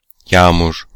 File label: Rain in Turkish